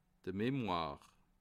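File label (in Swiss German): "memoire", Bas Rhin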